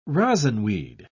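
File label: "rosinweed", British English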